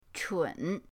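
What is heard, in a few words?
"chun", Mandarin pinyin, third tone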